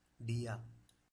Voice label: "deaar", vowel extend